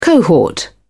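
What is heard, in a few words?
"cohort", British English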